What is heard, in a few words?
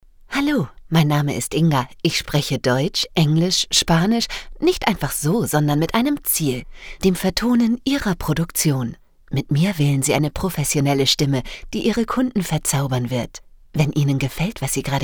german